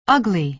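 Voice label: "ugly", American English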